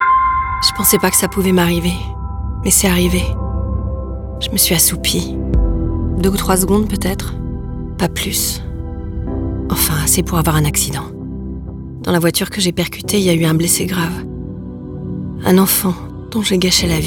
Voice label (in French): Radio; somnolence; Prevention sante securite